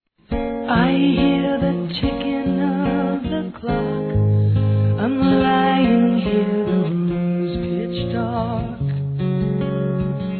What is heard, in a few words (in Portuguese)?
ROMANT. SAUDADE M